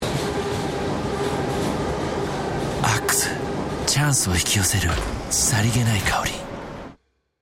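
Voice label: Japanese, male, commercial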